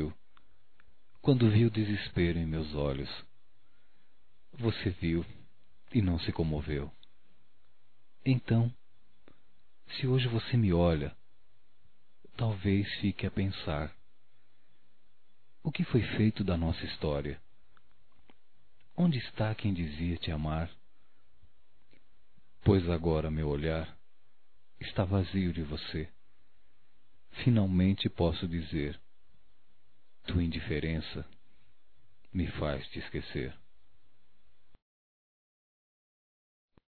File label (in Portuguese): Saudade Poesia Masculino